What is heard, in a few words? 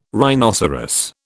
"rhinoceros", British